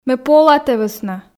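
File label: Komi, female; ме пола тэ вӧсна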